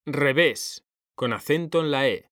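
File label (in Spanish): "REVÉS", Spanish; mekanta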